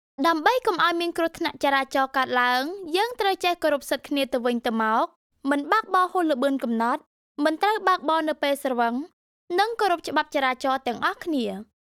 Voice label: IVR; voiceover-cambodia